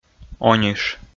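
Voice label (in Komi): "ониш", Komi, male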